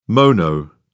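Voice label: "mono", English, British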